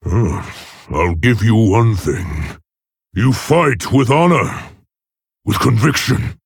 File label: Male Orc; Varok; Uther